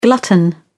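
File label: "glutton", English, British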